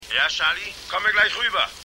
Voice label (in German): Telefon